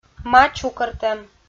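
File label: "ма чукӧртӧм" Komi, female; kpv audio dict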